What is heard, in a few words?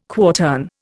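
"quartern", Indian English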